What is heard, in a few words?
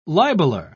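"libeler", British English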